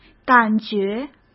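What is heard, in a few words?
"感觉", Mandarin Chinese; hsk; chinese-flashcards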